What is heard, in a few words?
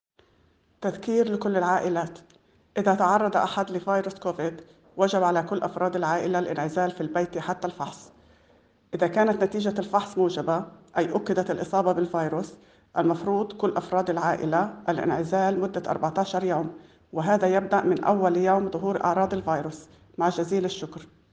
Message in Arabic; Covid-19